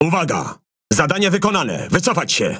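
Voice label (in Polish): MAIN TASK PHRASE, Polish; PVE; World Of Warships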